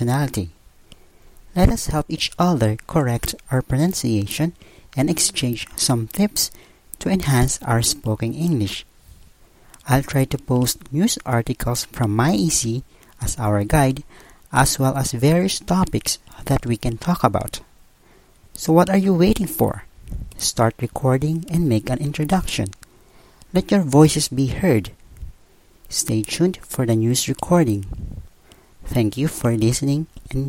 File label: speaking practice